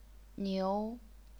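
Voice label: "niu", second tone, chinese pinyin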